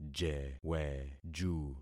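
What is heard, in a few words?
"jeweju", concatenated syllables; Affixing experiment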